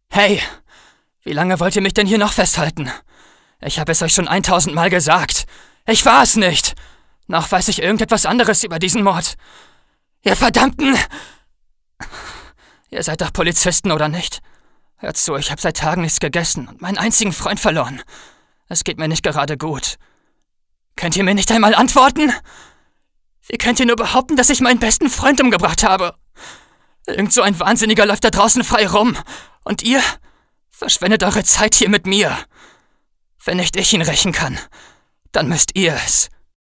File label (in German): schauspiel, sprecher